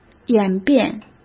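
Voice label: "演变", Mandarin Chinese; hsk; chinese-flashcards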